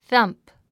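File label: "thump", English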